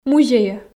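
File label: "музейӧ", Komi, female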